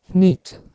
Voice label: "hnitu", Anglo Saxon

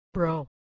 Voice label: "brow", Middle English

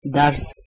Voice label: "dars", Proto-Germanic

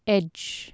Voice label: "ecg", Anglo Saxon

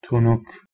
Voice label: "tunuk", Persian

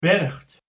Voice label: "berht" Anglo Saxon

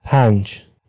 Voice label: "panj", Persian

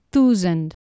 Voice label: "thusend", Anglo Saxon

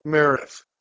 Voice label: "mergth", Anglo Saxon

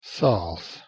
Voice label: "sals", Latvian

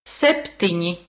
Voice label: "septini", Latvian

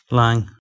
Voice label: "lang", Scots

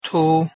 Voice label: "tu", Tajik

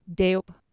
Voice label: "deop", Anglo Saxon

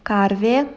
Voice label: "karve", Lithuanian

